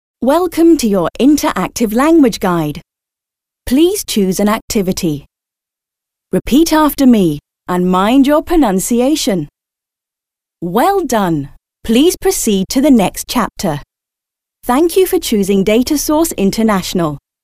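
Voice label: British female corporate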